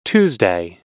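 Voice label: "Tuesday", US English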